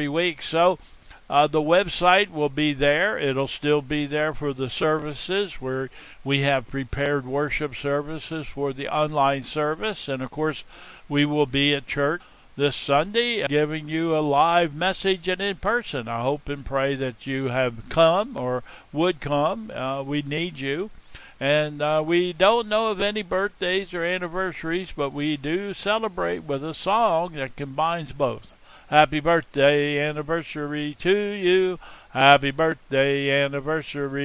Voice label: Welcome and Announcements